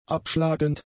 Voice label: "abschlagend", German; adjectives